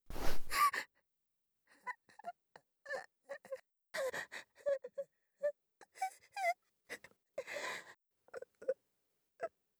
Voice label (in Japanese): 怯える